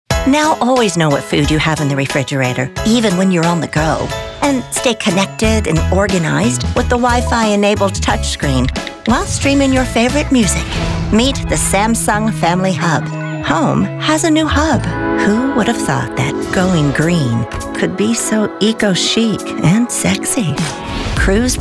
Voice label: Commercials